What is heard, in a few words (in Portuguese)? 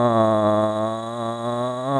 Leve, Soprosidade, Fala